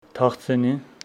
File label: Homshetsi peach tree